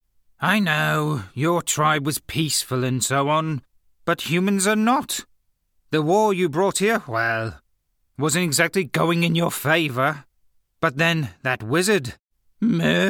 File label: English Scottish animation